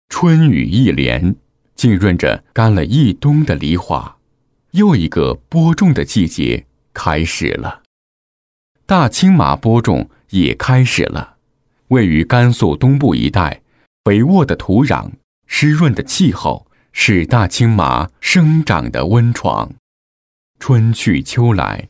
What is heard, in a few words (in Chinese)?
男 纪录片; 麻鞋; 大漠行